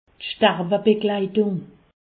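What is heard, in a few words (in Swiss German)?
"sterwebegleitung", Alsatian; medecine; soins palliatifs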